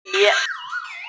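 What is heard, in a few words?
"qian bi", Mandarin Chinese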